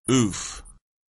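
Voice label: "oof", English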